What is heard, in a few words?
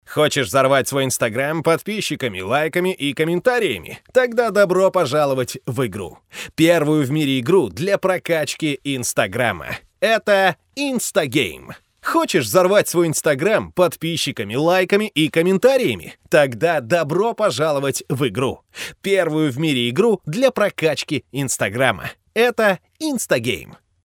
Ru